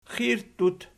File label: "xirttut", Archi